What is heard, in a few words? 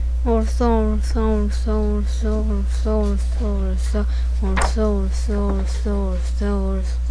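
"also", spoken word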